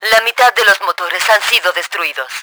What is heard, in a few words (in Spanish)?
engine down, Spanish; Battle Engine Aquila; XBOX